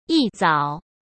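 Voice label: "一早", Mandarin Chinese; chinese-flashcards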